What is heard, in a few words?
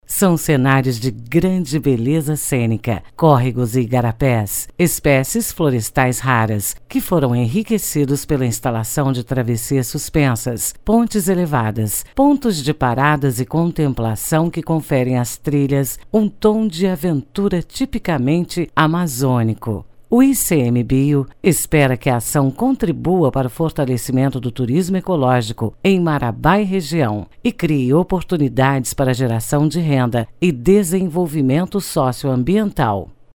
portuguese movie trailers